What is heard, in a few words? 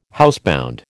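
"housebound", American English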